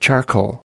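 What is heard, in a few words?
"charcoal", US English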